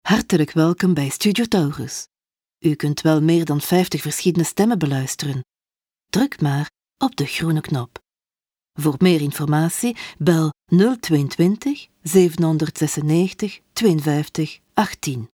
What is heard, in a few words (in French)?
Neerlandais, voix-off; casting de voix-off; taurus-studio